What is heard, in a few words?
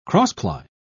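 "cross-ply", British English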